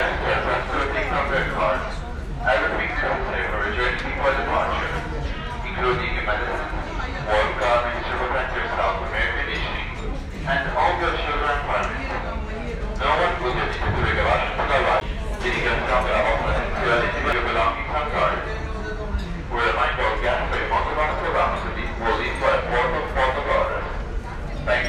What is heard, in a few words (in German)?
Durchsage; Rhapsody